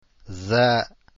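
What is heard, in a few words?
jaa, arabic letters; deen path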